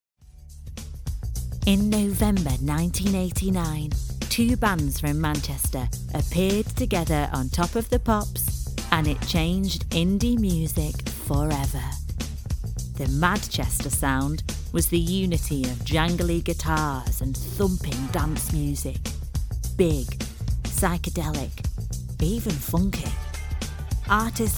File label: documentary